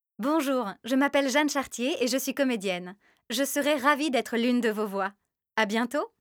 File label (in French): voix presentation